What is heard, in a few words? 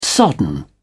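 "sodden", British English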